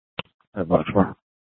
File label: Sheriff, scanner P25; Shrf Lakes